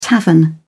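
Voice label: "tavern", British English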